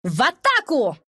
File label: attack, ru; dota2